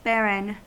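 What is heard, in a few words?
"barren", US English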